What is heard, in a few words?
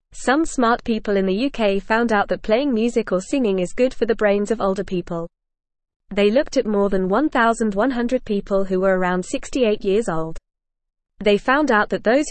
English Beginner FAST Reading; English Newsroom; Playing Music Helps Older Brains Stay Healthy